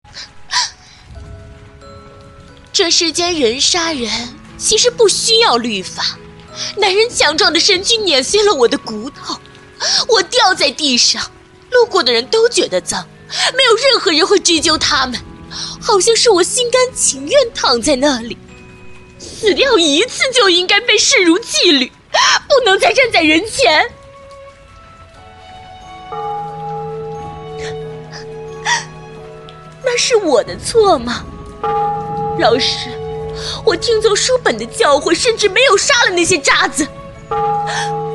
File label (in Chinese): 古风爆发